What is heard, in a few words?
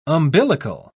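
"umbilical", British English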